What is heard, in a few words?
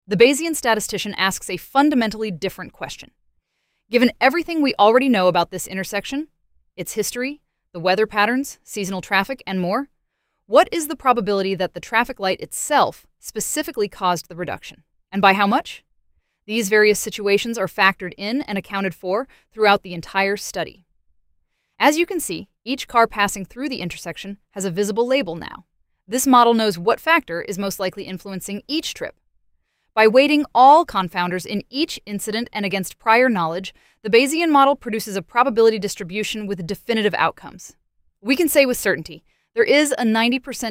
narration; Bayesian Explainer; bayesian vs frequentist research